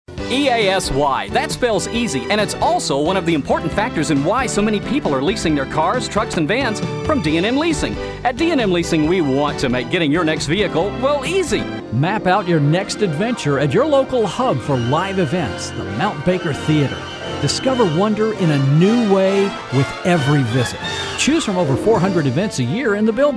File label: Commercial Male